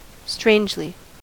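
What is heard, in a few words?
"strangely", US English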